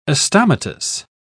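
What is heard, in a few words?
"astomatous", British English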